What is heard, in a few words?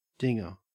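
"dinger", Australian English; pronunciations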